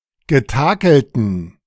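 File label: "getakelten", German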